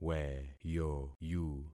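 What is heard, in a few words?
"weyoyu", concatenated syllables; Affixing experiment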